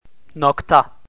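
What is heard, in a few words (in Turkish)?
"nokta", Turkish, male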